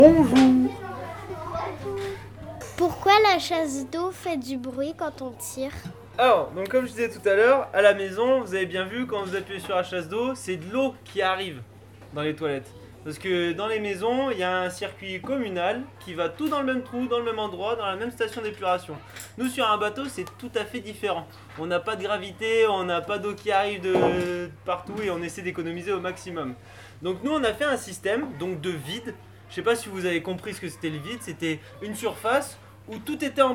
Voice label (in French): interview capitaine